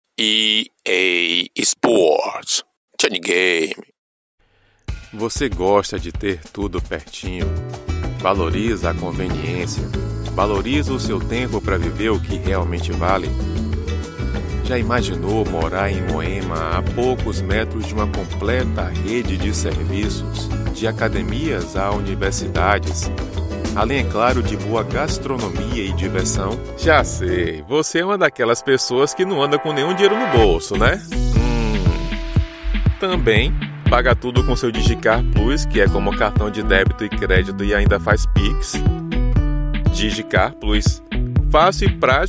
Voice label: portuguese brazilian commercial, profound voice actors; Brasil